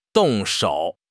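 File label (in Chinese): "动手", Chinese; HSK6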